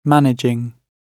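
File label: "managing", British English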